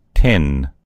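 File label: "tin", American English; gcompris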